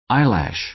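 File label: "eyelash", American English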